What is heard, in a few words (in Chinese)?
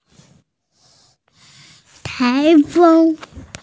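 "tai2feng1", Chinese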